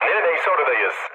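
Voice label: Turkish near waypoint, mood low, speech; War Thunder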